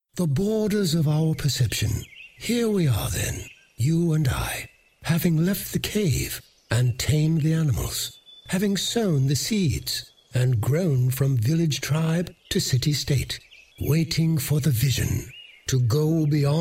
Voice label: British

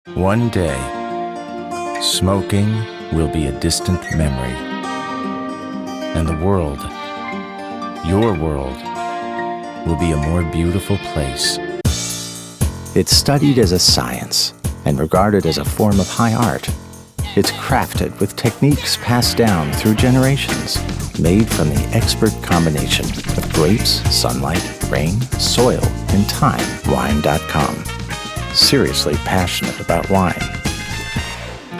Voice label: Men Commercial

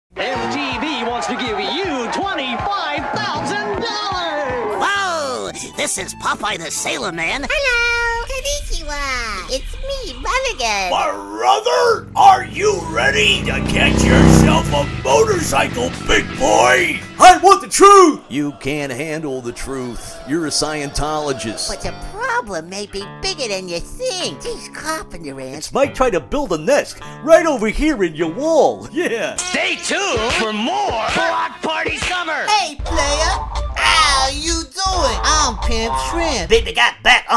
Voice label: Men Animation

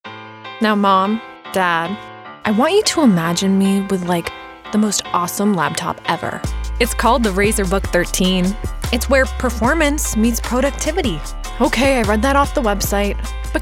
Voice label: commercial, Women

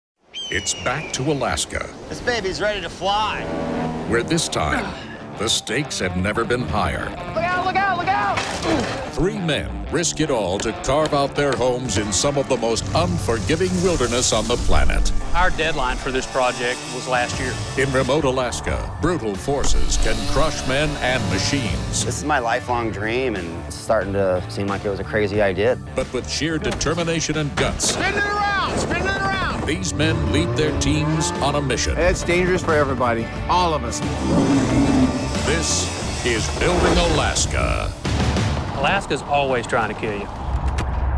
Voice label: Men Narration

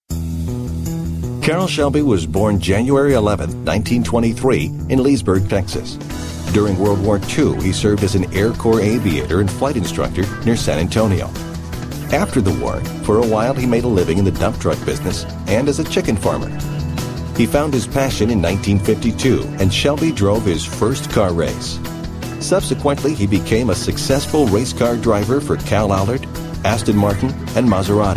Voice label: Men Narration

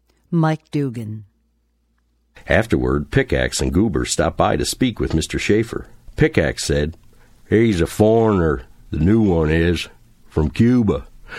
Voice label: Men Audio Book

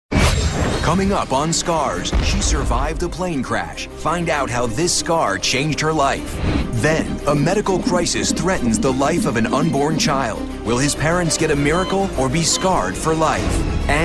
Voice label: Men Promo Trailers

